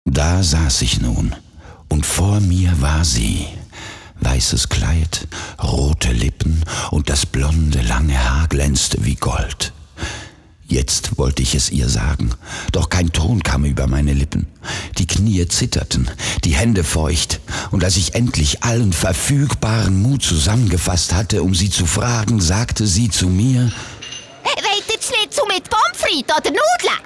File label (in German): Werbung DE CH; flippig frech verspielt